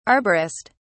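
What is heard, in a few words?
"arborist", British English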